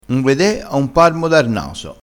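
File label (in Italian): "parmo", dialettale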